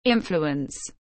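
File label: "influence", English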